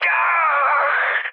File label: damaged pilot, speech hun; War Thunder